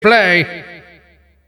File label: failure, announcer; tf2cciv; open fortress